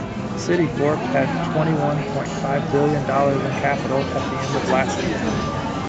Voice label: mixed ped noisy